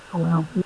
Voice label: Voice Acting, es